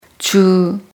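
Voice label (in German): "Woche", German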